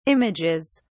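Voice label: "images", British English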